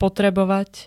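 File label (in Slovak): "potrebovať", Slovak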